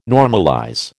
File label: "normalize", American